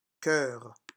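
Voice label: "coeur", French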